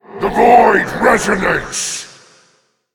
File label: male voidwalker attack